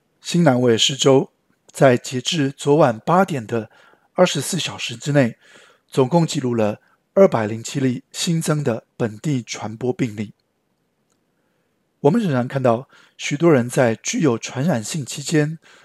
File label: chinese; covid-19 coronavirus; covid-19 daily key points